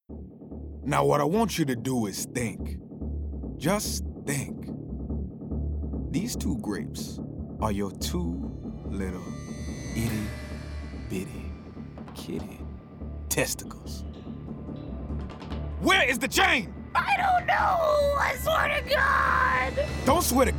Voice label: animation scene african american english; boondocks